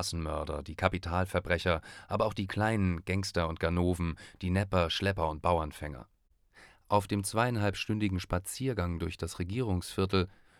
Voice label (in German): Stadtkrimi für Radio